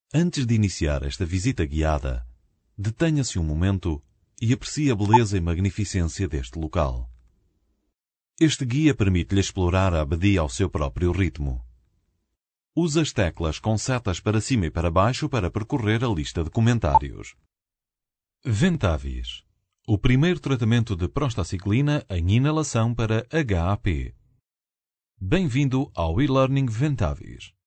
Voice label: male portuguese voice over talent narrative, portugal